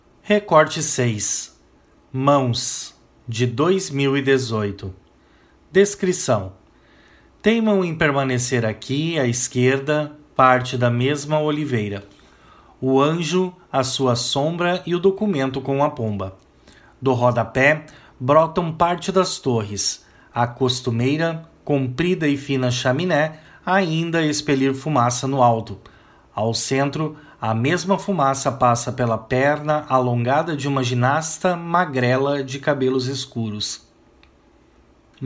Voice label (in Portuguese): audiodescrição; galeria virtual